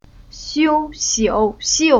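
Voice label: "Xiu", chinese syllable; Уроки фонетического блока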